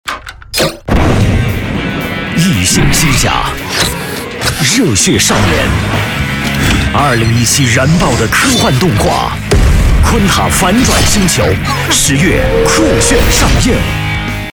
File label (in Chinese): 男 异形机甲电影预告